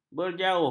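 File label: "berjauh", Malay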